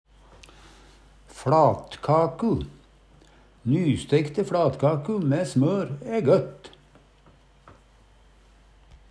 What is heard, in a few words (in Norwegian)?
fLatkaku, Numedalsmål; Nysteikte fLatkaku mæ smør æ gøtt.; Substantiv hokjønn